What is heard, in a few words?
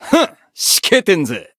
growth, jp